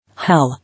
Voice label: "Hell", English